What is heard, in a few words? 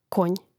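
"konj", Croatian; Mrežnik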